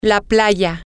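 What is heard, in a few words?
beach, Spanish, female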